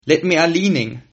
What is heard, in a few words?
leave me alone, Sylt Frisian